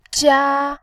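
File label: "jia", Mandarin, first tone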